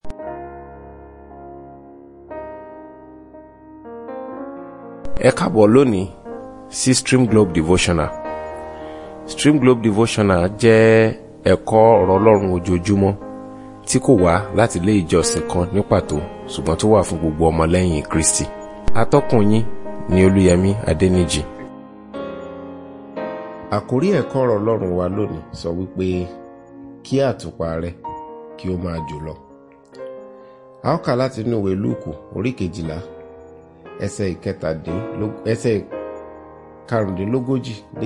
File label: Devotional Audio Yoruba